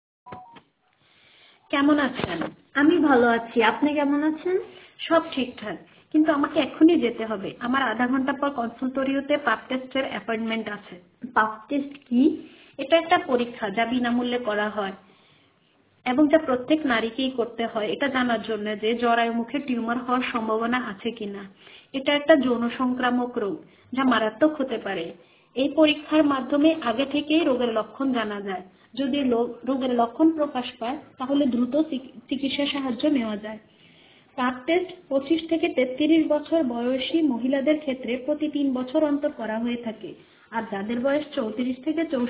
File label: Bangla